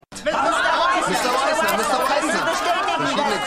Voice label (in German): Reporter Menge; For All Mankind